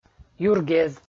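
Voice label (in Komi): "юргез" Komi, male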